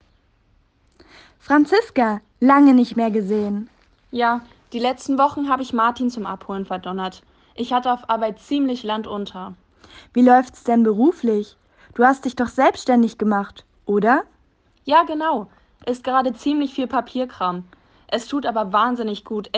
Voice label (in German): Dialog Zwei Muetter